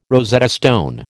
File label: "Rosetta stone", American